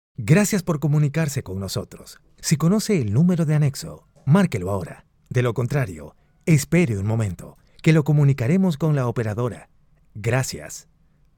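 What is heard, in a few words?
Spanish IVR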